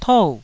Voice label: "tou4", Taiwanese